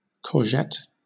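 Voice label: "courgette", British English; pronunciations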